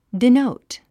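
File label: "denote", 英単語 発音; dinóut ディノウト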